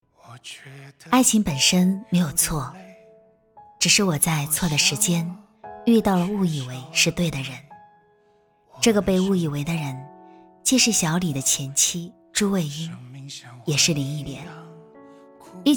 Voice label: 音乐故事

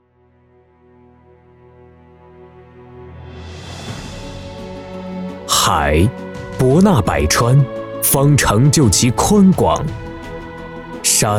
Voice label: 专题大气; 天津城市

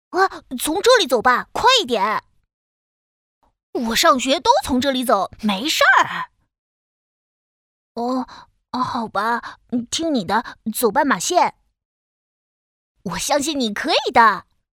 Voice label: 角色男童; 夺命大货车杨杨

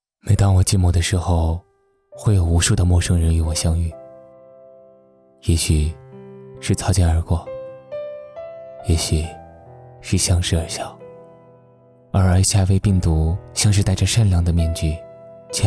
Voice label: 艾滋病公益广告

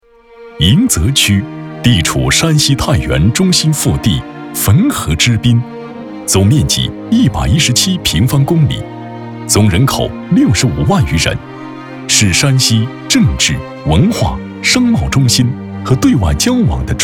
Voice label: 城市宣传类 大气厚重沉稳; 太原迎泽区

